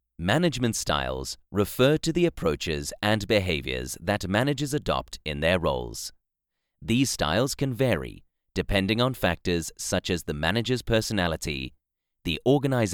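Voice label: English, British, male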